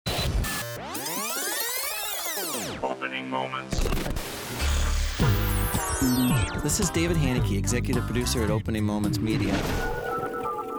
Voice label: podcast